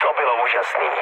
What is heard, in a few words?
trick, speech cze, mood low; War Thunder